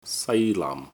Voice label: "sai1 naam4", Cantonese